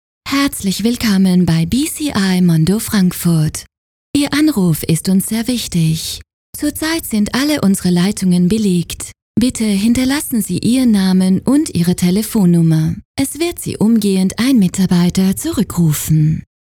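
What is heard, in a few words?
german interactive voice response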